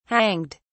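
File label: "hanged", British English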